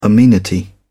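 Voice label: "amenity", English, British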